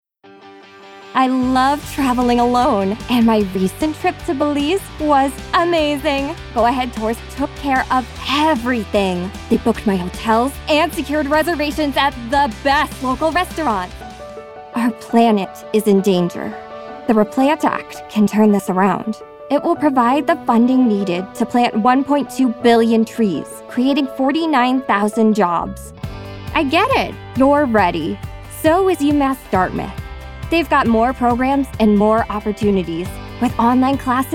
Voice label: commercial

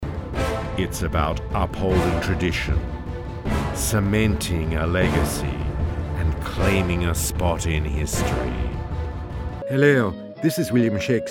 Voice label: Commercial

